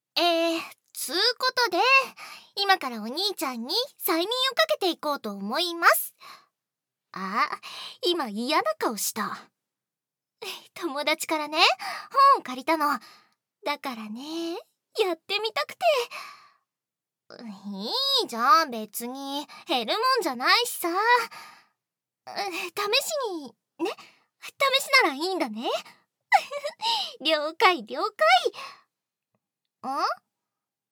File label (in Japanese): 催眠導入